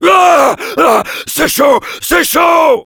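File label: auto on fire, French; Heavy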